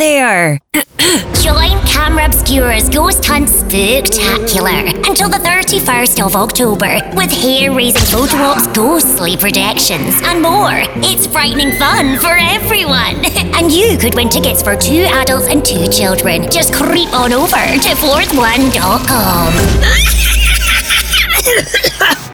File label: Halloween Witch